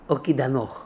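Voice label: "Okidanokh", pronunciations; Fourth Way; Beelzebub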